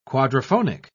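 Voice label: "quadrophonic", English, British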